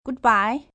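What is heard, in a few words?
"Goodbye" Mandarin accent, Goodbyes, female; VoiceBox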